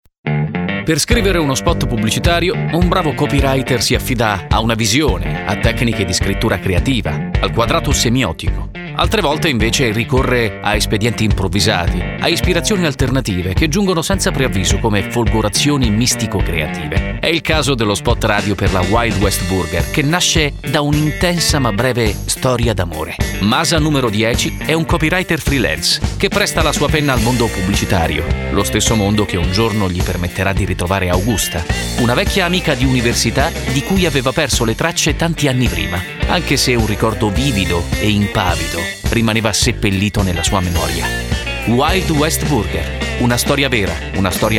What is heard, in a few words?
trailer